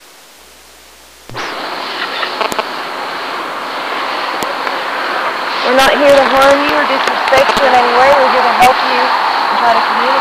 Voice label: Tape Side B Young Female Voice Trying to Communicate